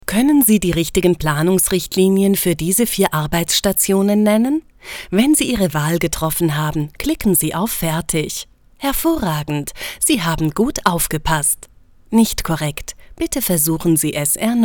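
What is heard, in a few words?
German, female, elearning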